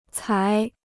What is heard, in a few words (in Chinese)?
"才", Chinese